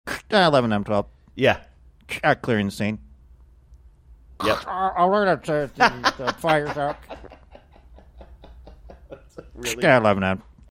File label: mimicking what people are saying over police scanner